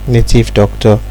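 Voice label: "native doctor", Nigerian Pidgin, male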